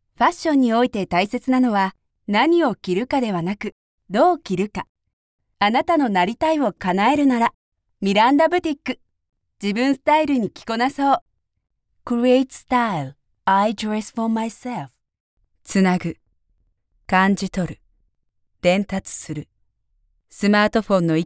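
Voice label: Japanese female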